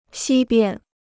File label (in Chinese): "西边", Chinese